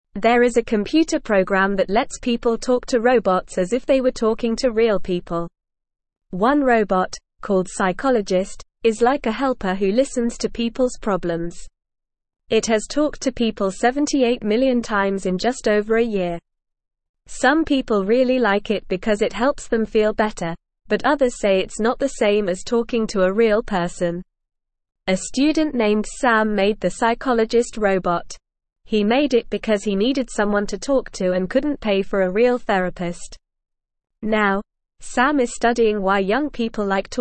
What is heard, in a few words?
English NORMAL Reading; A special website with pretend friends for kids; English Newsroom